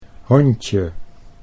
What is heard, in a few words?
"hondje", Dutch